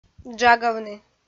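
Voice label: "джагавны", Komi, female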